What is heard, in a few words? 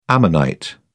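"ammonite", British English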